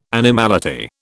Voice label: "animality", British